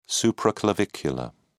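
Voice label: "supraclavicular", British English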